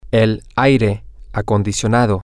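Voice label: Spanish, the air conditioning, male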